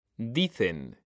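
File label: "dicen", Spanish, male; mekanta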